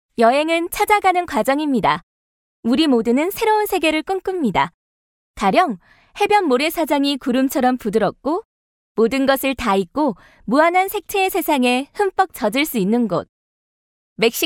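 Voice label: Korean, female, commercial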